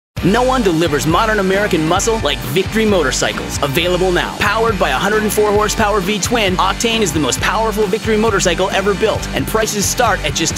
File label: Motorcycles Voiceover; Victory Motorcycles